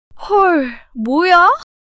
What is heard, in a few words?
surprise, monologue